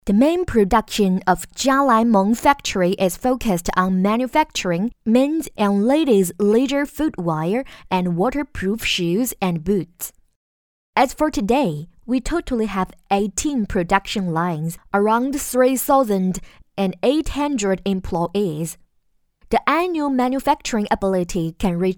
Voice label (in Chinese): 英文; 加莱蒙